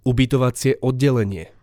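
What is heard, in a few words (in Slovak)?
"ubytovacie oddelenie", Slovak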